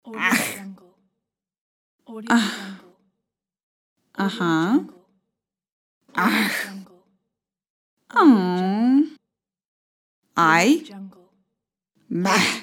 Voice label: expressive interjections pack